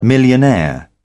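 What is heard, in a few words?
"millionaire", British English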